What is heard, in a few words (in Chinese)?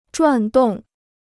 "转动", Chinese; 转动 (zhuàn dòng); to revolve